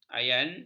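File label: "ayan", Malay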